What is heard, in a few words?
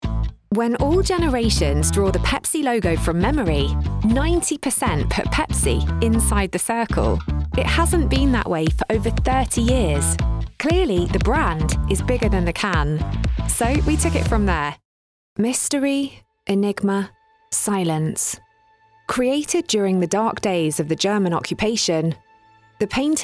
english british audio guides, british voice actors